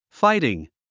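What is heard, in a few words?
"fighting", English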